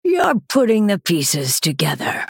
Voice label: female night elf well played